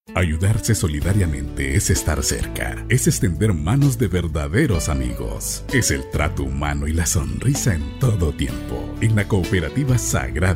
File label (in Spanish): locutor hondureno